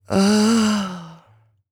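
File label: female spoken, R&B Dance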